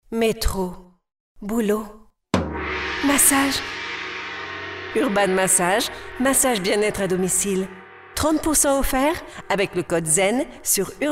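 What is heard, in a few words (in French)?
massages chaleureux joyeux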